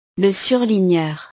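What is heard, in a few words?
"le surligneur", French; utiles escolares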